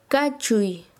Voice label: Chanka, quechua